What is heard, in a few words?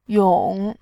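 "yong", Mandarin Chinese, tone 3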